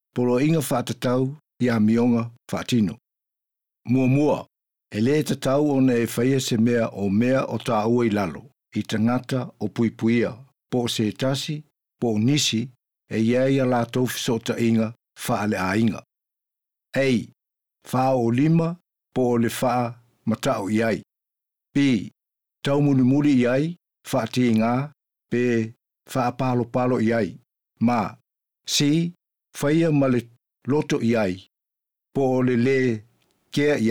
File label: samoan; orders about behaviour